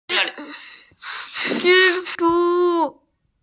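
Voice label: "zhi zhu", Mandarin Chinese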